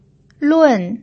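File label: "lun", Mandarin Chinese pinyin, tone 4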